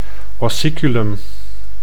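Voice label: "ossiculum", Dutch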